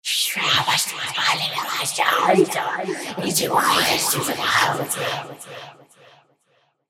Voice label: Creepy Whisper Phaser FX